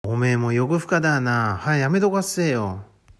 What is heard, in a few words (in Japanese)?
「よぐふか」, 旭弁 銚子弁; 強欲; 「おめーもよぐふかだーな、はーやめどがっせーよ」; （訳）お前も欲が深いな、もうやめておきなよ